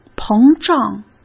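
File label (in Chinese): "膨胀", Mandarin Chinese; hsk; chinese-flashcards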